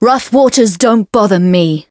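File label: Voice encounter fear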